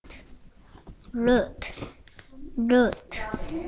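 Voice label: "lute", spoken word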